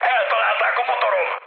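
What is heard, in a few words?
Hungarian damaged engine mood high, speech; War Thunder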